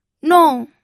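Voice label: "nong", fourth tone, pinyin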